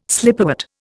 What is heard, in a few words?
"slipperwort", Indian